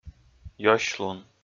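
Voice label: "ёсьлун", Komi, male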